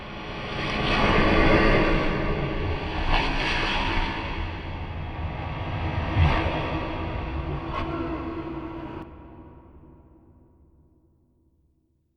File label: Backward Whispers; Inquisitor 2